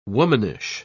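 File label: "womanish", British English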